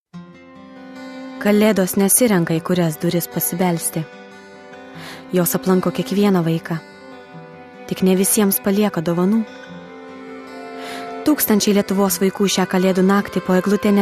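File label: Lithuanian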